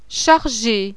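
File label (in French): "charger", français; CHARGER (ACTIVITÉ)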